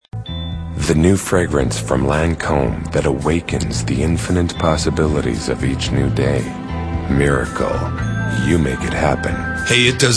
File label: male, mid30s-mid40s, cool